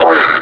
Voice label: callout code three, combine suppressor; Half Life Alyx